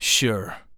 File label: Acknowledge, Human Male; zps; HumanMale-01